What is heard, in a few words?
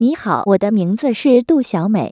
female